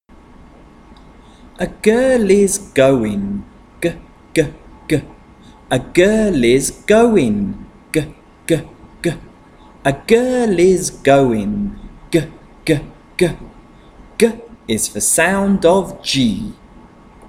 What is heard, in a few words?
Consonant Chant g